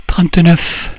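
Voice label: "trente neuf", French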